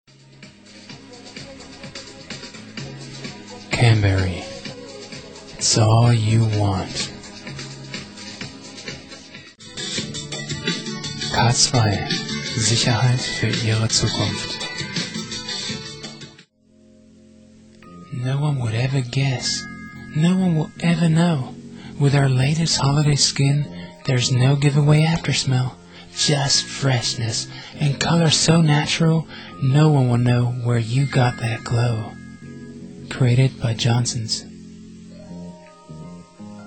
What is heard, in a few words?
ads sample, native english